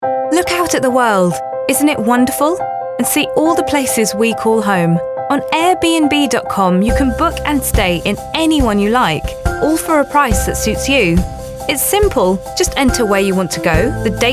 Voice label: Commercials